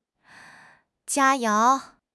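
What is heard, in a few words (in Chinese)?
"佳肴", Chinese; HSK6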